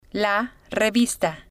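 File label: "la revista", Spanish, female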